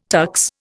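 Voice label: "tux", Indian English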